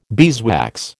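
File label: "beeswax", American English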